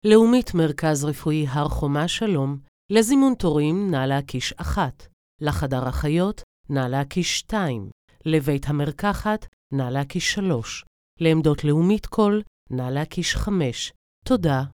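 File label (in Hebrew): Hebrew, קריינות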